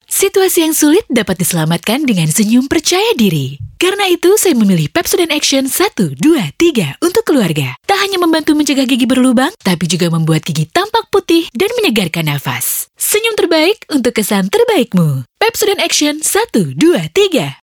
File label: Bahasa Indonesian; Pepsodent